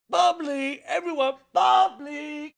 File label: Drunk Bubbly, Drunk, male; Talking